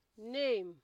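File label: "name", Nigerian Pidgin, female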